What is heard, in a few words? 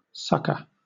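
"succour", British English; pronunciations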